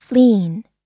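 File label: "fleeing", US English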